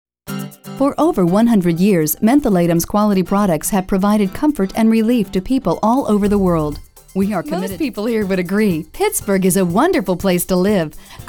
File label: narration